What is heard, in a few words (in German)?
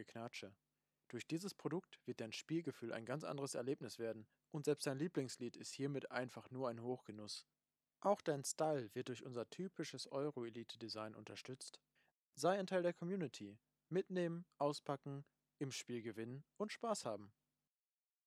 headset mikrofon